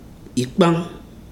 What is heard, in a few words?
"spoon", Ibibio